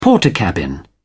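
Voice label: "portakabin", British English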